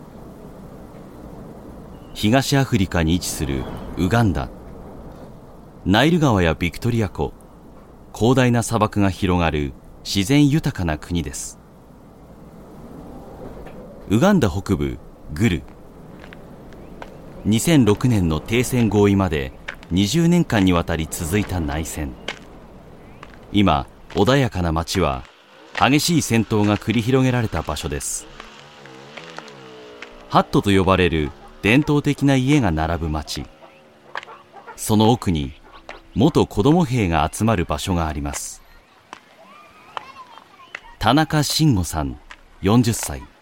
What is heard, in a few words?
japanese documentaries; japanese voice over talent